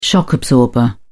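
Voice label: "shock absorber", British English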